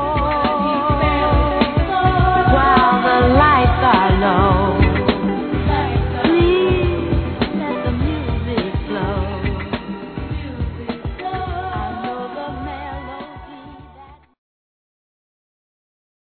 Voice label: Natal Para Amiga Masculino; Telemensagens; Natal e Ano novo

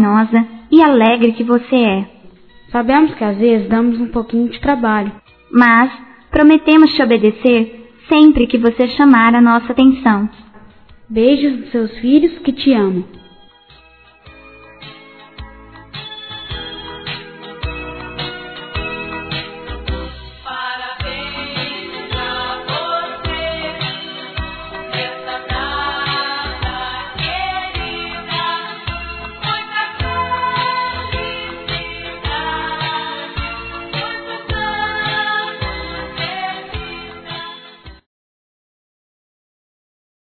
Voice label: Aniv. Pai Duas Vozes, Telemensagens; Aniversário Infantil

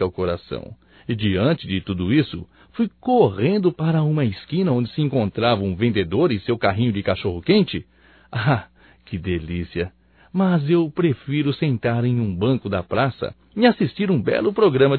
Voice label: Gozação AGRADECIMENTO A MENSAGEM M; masc; Telemensagens 2017; Engraçadas

